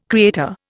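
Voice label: "creator", Indian English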